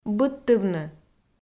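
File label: "быдтывны", Komi-Zyrian, female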